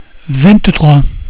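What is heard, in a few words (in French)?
"vingt trois", French; cat numeration doigts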